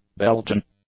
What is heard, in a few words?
"Belgian", English; adjectives